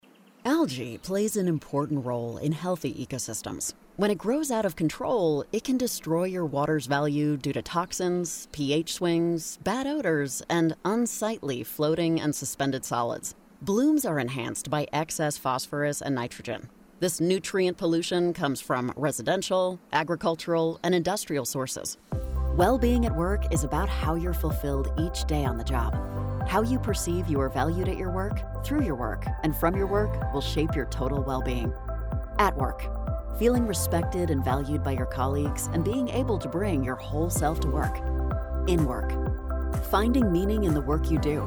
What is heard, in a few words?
E Learning